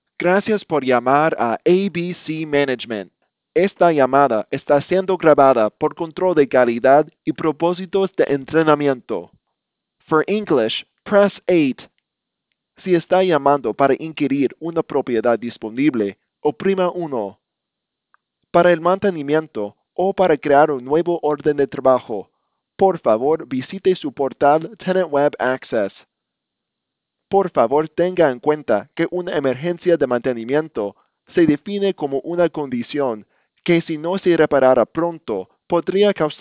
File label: Sample Spanish